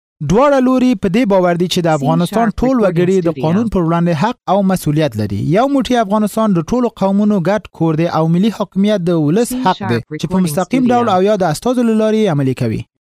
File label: Pashto Male